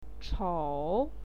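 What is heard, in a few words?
"chou", Mandarin Chinese, third tone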